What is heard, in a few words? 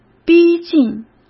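"逼近", Mandarin Chinese; chinese-flashcards; hsk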